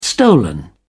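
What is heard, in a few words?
"stolen", English, British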